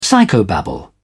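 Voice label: "psychobabble", British English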